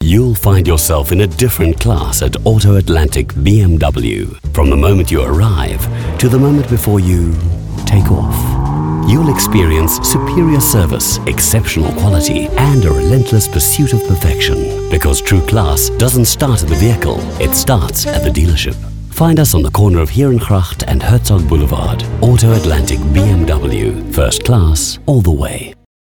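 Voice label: Commercial, South African English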